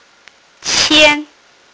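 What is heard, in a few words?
"qian1", Mandarin Chinese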